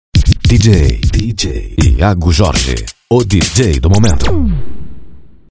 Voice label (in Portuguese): voz locutor